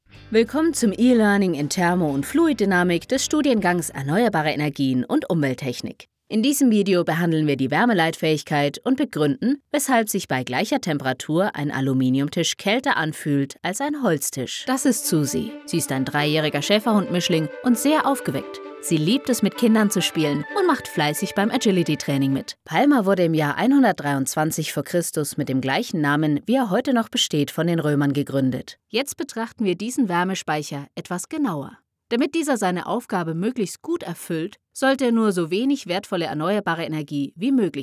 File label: eLearning, DE